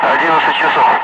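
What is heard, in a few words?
Russian speech, Ka-50 Wingman; DCS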